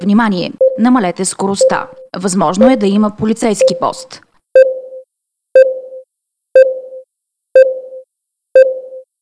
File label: Mobile Camera; mobilenavigator 2023